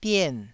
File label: "dien4", Taiwanese